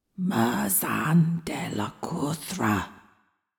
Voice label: incantation feminine reverb help; psfx; incantations

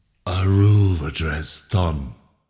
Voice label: incantation masculine reverb help

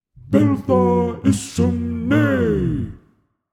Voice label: incantation masculine demonic harm; incantations

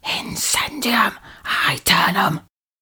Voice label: incendium aeternum whisper, older female; incantations, fire spells; psfx

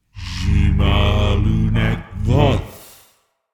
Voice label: incantation masculine demonic summon

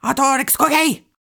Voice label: "ardor exsurge" rushed, incantations older female; fire spells; psfx

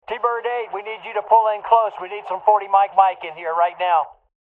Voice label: military radio voice forty mike mike request; fvtt sonniss gdc audio

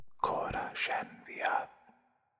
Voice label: incantations whispers masc forward standard; psfx

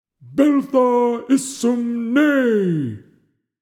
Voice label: incantation masculine reverb harm; psfx

